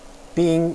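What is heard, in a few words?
"bing", Mandarin Chinese, first tone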